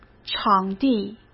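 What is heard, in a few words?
"场地", Mandarin Chinese; chinese flashcards; hsk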